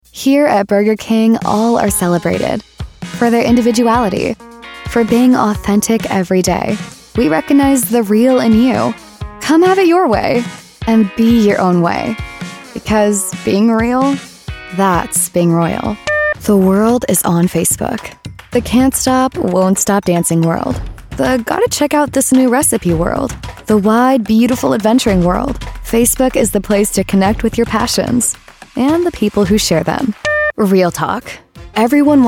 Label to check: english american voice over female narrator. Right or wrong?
Right